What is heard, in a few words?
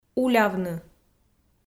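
"улявны", Komi, female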